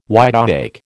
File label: "wideawake", American